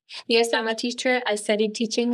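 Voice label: Voice Acting es, female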